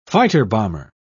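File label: "fighter-bomber", English, British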